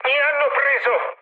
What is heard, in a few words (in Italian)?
aircraft destroyed, mood med, speech it